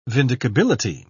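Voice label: "vindicability", English, British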